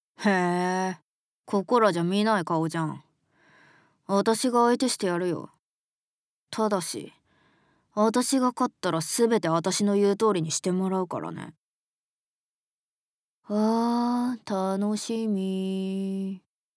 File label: Woman